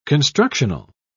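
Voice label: "constructional", English, British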